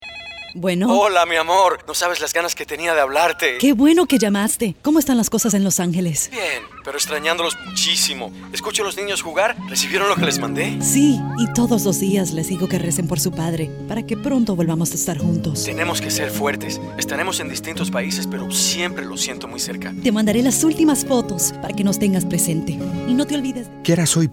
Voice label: phone call